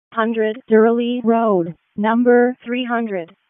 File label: EMS ALERT; wake; p25